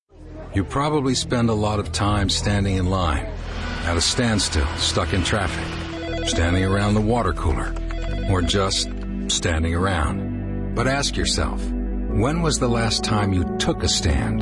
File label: radio ad; day of action; restore law and justice